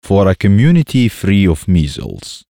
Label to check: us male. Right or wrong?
Wrong